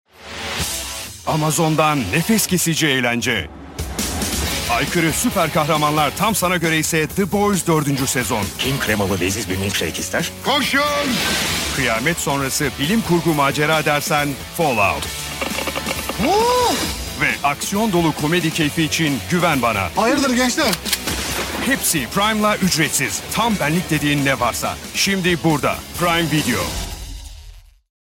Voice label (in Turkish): reklam; amazon prime video